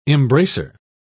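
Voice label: "embracer", British English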